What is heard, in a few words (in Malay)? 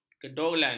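"kedogolan", Malay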